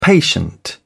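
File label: "patient", British English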